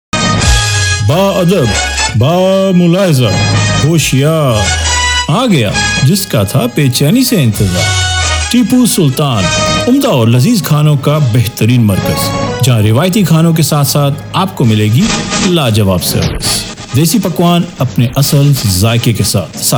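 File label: Radio Adv Urdu, radio advert in Urdu; Tipu Sultan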